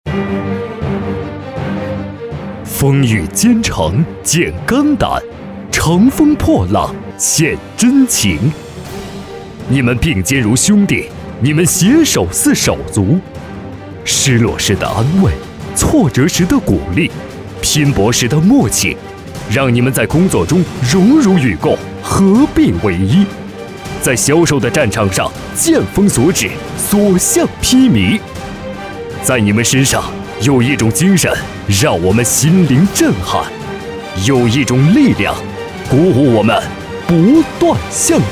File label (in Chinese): 男 获奖感言（激昂紧迫）